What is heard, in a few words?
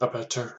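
"abettor" US English; pronunciation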